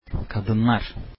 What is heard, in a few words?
women, turkish